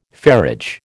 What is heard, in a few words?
"ferriage", American